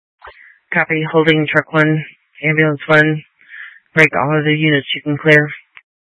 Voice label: Tactical Incidents, scanner; Grand Junction Tactical 1

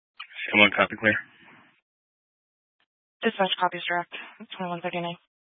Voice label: Fire Department Dispatch, scanner

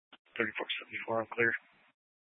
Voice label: Police Dispatch, scanner; Police 1 Dispatch

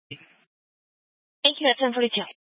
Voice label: Police Dispatch, scanner; Police 1 Dispatch

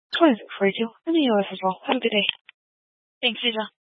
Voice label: Sheriff Dispatch, scanner; Mesa County

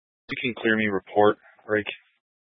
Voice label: Police Department Dispatch; Grand Junction

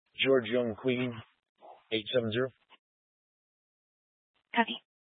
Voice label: Mesa County Sheriff Dispatch; scanner

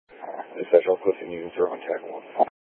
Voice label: Incident Tac, scanner; Incident Tac 1

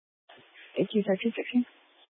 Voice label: Fire Dispatch, scanner

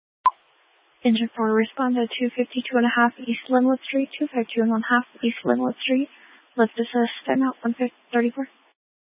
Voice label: Fire Dispatch, scanner

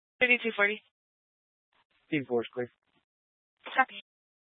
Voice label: Police Dispatch

